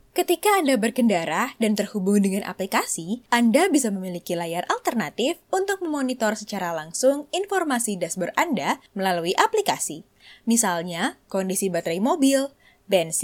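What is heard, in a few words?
Indonesian casual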